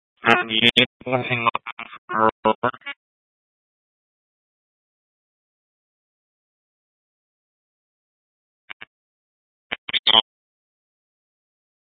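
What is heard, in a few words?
Tempe police department, scanner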